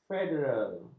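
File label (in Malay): "federal", Malay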